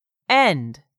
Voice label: "end", English; 3000 words

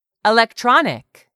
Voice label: "ELECTRONIC", English; 3000 words

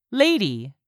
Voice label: "lady", English; 3000 words

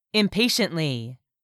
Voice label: "IMPATIENTLY", english; 3000 words

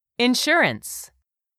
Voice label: "insurance", English; 3000 words

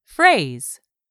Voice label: "phrase", English; 3000 words

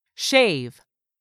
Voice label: "SHAVE", english; 3000 words

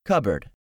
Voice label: "cupboard", English; 3000 words

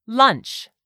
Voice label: "lunch", English; 3000 words

